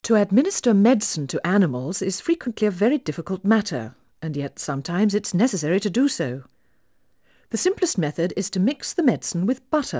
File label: Female speech English Mono output noised, 3bit